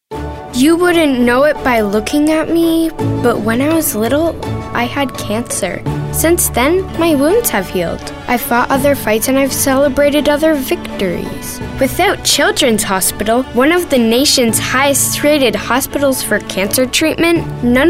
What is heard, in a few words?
confessional